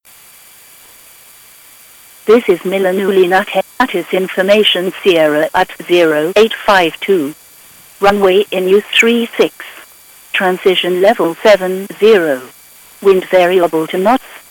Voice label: ATIS Milano